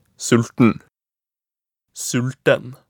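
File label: "sulten", Norwegian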